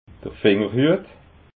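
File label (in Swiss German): "fingerhuet", Alsatian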